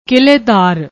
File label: "kiledaar", Punjabi